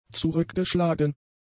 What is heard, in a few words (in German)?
"zurückgeschlagen", German; adjectives